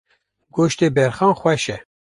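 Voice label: kurdish; goste berxan xwes e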